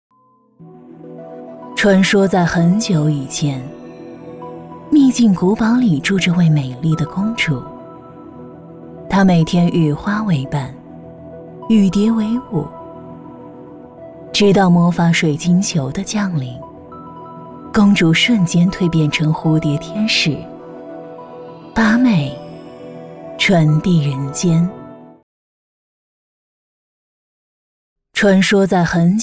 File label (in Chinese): 女 神秘故事; 秘境古堡